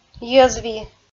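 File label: "йӧзви", Komi, female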